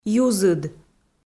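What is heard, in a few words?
"юзыд", Komi, female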